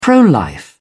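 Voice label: "pro-life", British English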